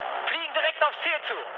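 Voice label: German near waypoint mood high, speech; War Thunder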